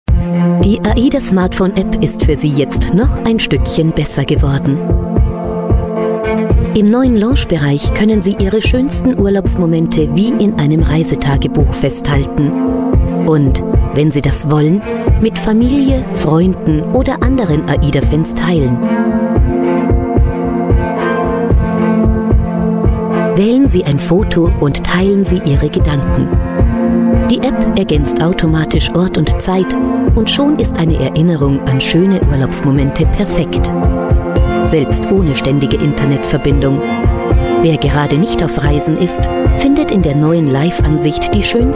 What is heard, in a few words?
german voice over female narrator